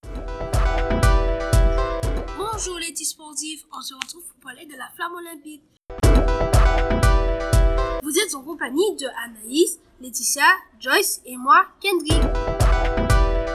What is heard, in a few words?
podcast; Flamme